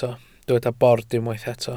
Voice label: "bord", Welsh

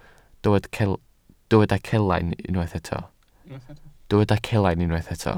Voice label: "celain", Welsh

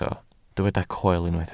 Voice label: "coel", welsh

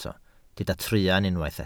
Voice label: "truan", Welsh

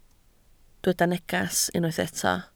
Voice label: "neges", Welsh

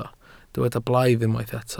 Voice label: "blaidd", Welsh

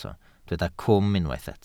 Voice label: "cwm", Welsh

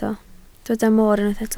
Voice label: "mor", Welsh

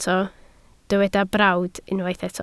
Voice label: "brawd", Welsh

